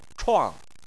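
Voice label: "chuang" fourth tone, Chinese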